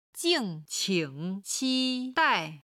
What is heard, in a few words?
"jing4qing3qi1dai4", chinese